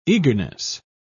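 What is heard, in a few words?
"eagerness", British English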